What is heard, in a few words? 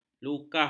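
"lukah", Malay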